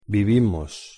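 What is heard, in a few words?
"vivimos", Spanish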